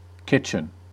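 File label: "kitchen", US English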